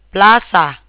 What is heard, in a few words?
"square", Tagalog